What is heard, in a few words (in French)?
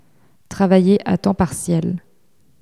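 "travailler a temps partiel", French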